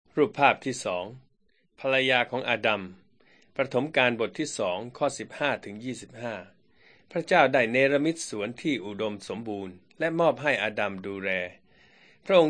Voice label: beginning with god thai central